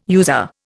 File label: "user", Indian